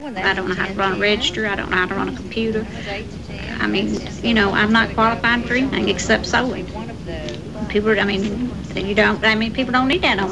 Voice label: Alabama female, dialect